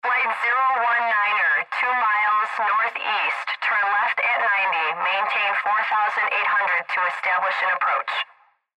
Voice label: Airtraffic Control Approach